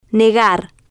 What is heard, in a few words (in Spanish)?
"negar" Spanish